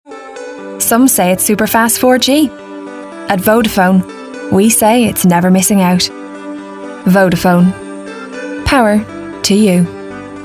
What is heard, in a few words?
southern; VODAFONE